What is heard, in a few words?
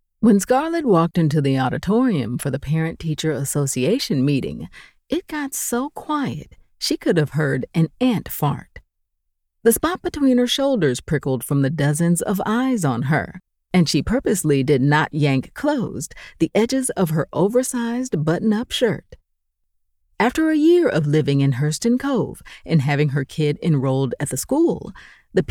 3rd POV Contemporary Fiction